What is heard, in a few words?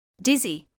"dizzy", medical english